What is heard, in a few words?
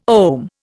"ohm", Indian